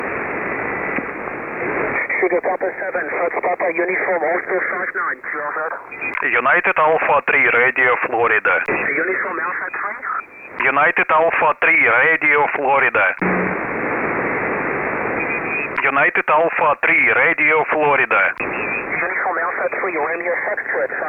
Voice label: 20m SSB